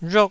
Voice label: "rhok4", taiwanese